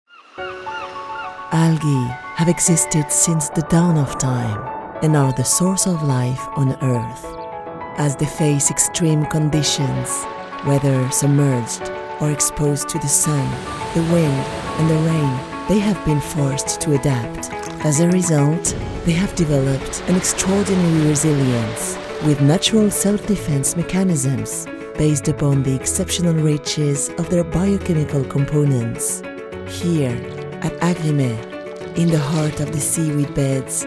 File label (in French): ANGLAIS Chaleureux explicatif; AGRIMER